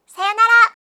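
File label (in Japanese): "sayonara", Japanese; aisatsu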